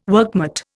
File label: "workmate", Indian